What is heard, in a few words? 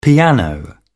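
"piano", British English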